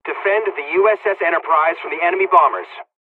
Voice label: dialogs polish; attack; War Thunder